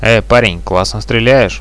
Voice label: nice shoot, radio bot; Reallite